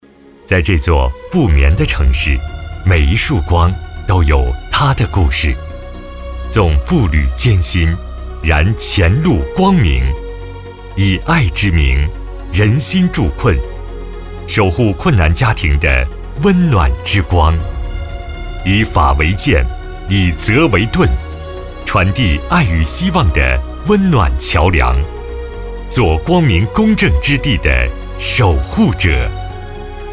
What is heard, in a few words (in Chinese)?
男 宣传温暖力量 律师事务所, 浑厚大气, 普通话